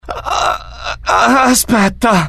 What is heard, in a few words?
fear, IT; mohaa; dialogue